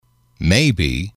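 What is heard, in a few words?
"maybe", English, male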